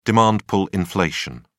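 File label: "demand-pull inflation", British English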